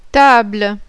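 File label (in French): "TABLE", French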